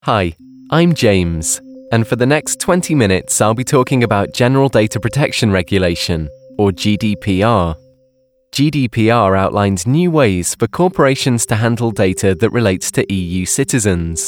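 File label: eLearning narration, Male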